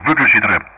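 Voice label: Speech Sound Russian; DCS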